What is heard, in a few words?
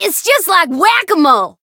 chant